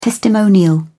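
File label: "testimonial", English, British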